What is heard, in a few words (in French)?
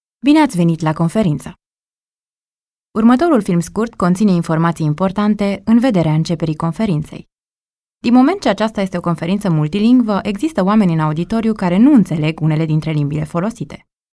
roumain